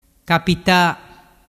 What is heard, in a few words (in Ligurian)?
"capita", dialettu